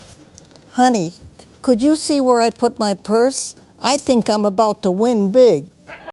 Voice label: happy, speech; fertig classic games; ethel